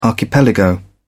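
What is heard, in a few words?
"archipelago", English, British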